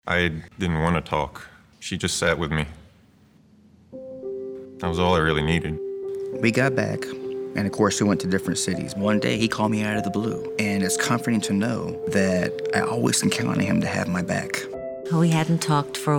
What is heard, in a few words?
be there eng radio